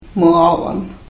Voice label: "muavan", karuk

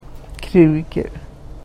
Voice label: "ikrivkir", karuk

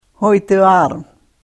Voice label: karuk

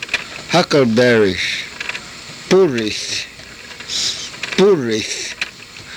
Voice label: "purith", karuk

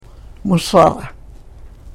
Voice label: "musara", Karuk

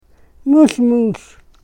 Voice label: "musmus", karuk

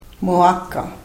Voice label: "muakah", karuk

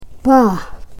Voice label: "paah", karuk

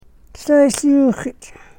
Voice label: "sahishyuuxach", Karuk

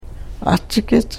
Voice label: "achakich", karuk

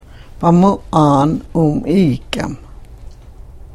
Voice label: karuk

